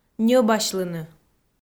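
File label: "ньӧбасьлыны", Komi, female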